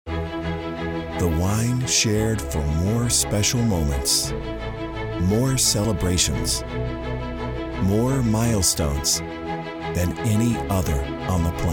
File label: Commercial